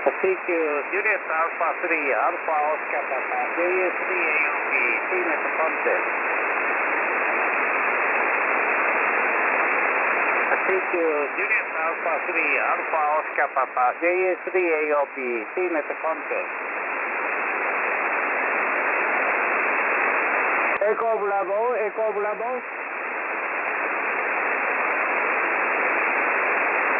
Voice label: cq contest 21320khz

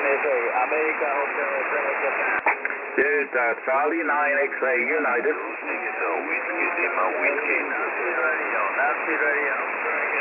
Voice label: QSO 18150 kHz

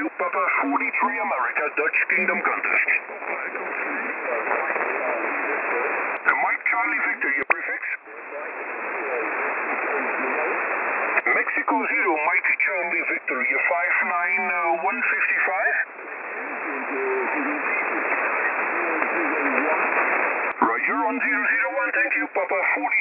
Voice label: QSO contest 14238.5 kHz

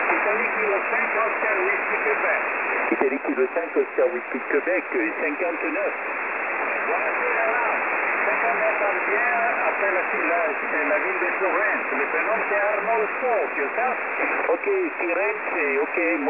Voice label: qso; 21207

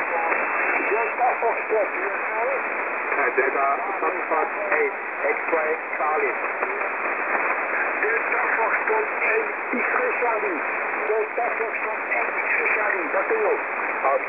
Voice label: qso 14244 khz

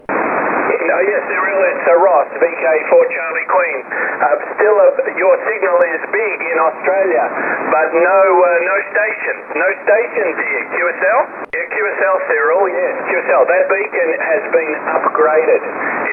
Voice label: qso, Radio

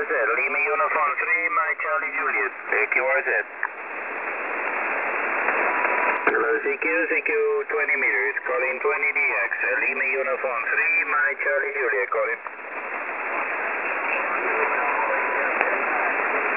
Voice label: qso 14181.0kHz, Radio

